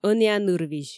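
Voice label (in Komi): ӧнія нырвизь, Komi, female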